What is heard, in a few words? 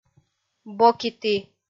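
"бокиті", Komi, female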